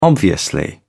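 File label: "obviously", British English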